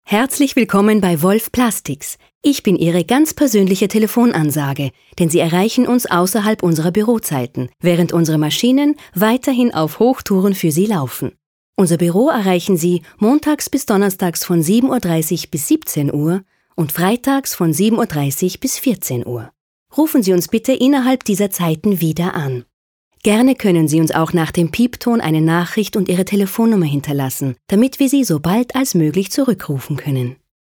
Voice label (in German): IVR Nacht; Wolf Plastics; Sprecherdemo